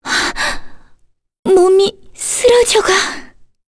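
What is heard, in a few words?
Vox Dead, Korean; voices; heroes; Kirze; kingsraid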